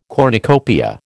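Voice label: "cornucopia", American English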